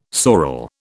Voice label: "sorel", British